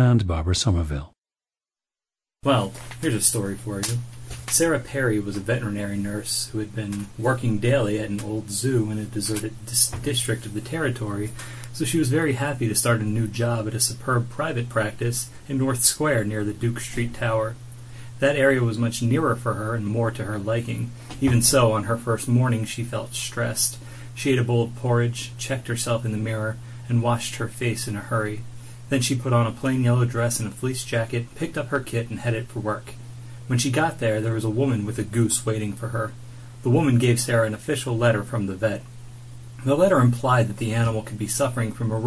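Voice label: virginia, International Dialects of English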